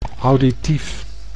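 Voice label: "auditief", Dutch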